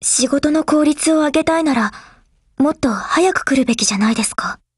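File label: Thunder50 HELLO Japanese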